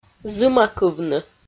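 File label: "зымакывны", Komi, female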